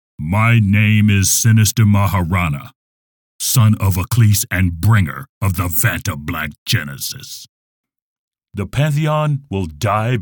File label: Video Game Villian